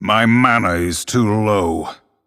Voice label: Male Night Elf Error Need Mana